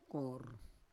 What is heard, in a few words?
"kor", Roon